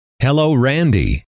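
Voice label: hello, male; trainer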